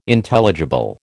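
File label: "Intelligible", American English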